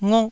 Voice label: "ngok4", taiwanese syllables